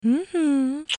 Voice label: Female; TCL M2L; hotknot